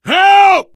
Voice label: help, player survivor voice biker